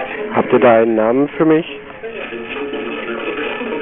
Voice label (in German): die totenbruecke bandpassfilter 8bit, tonbandstimmen